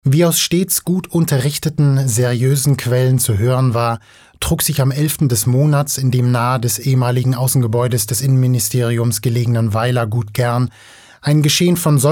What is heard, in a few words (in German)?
Trocken Neutral